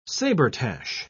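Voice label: "sabretache", English, British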